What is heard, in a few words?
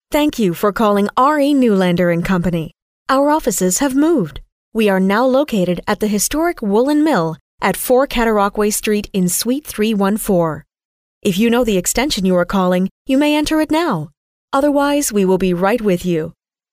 Phone Menu; RE Neulander and Company